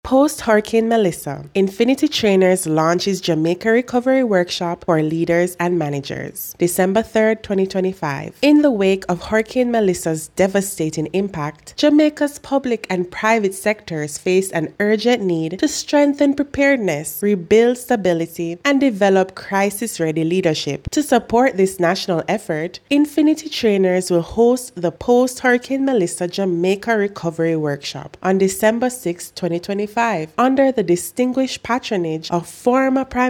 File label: VOICEOVER; WORKSHOP; jamaica recovery workshop for leaders and managers